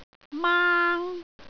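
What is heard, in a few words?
"maang1", Cantonese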